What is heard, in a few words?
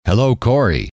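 "hello", male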